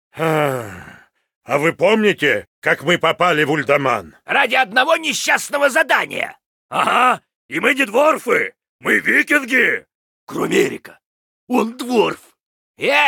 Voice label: Pissed; LostVikingsBase